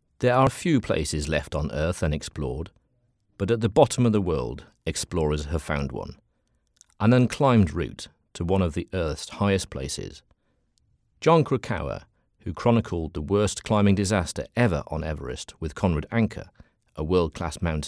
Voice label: Voice Over Show Reel